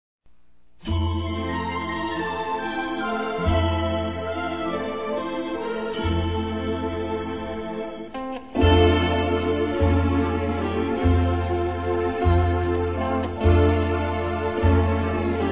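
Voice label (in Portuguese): NEUTRO, Feminino; Telemensagens; Natal e Ano novo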